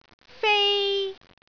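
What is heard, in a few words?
"fei1", Cantonese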